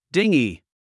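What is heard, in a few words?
"dinghy", English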